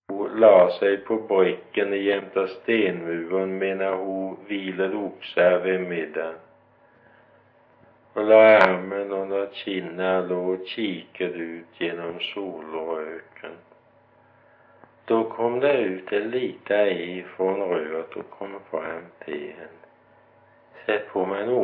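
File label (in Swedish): Gårdby Öland, svenska sagor dialekter; Folkminnen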